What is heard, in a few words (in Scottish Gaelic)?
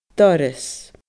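"doras", Scottish Gaelic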